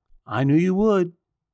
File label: Dad complimenting your hardwood floors; Foley Library; People Sounds; Judge John Hodgman